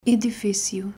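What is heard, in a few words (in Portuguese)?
"Edifício", Portuguese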